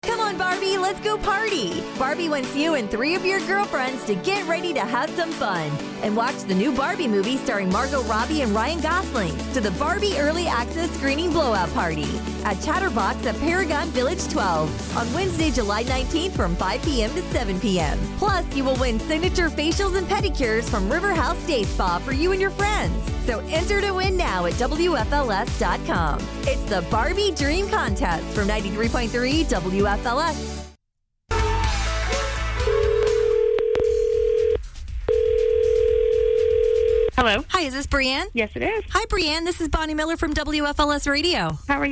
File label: Promo with Winner Call